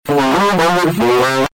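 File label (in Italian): "volo", Italian; volo, magia!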